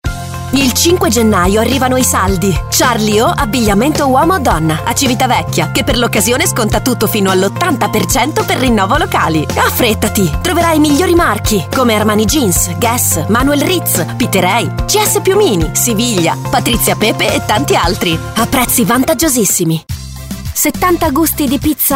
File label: spot